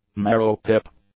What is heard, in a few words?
"marrow pip", English; nouns